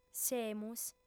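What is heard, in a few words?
"semus", female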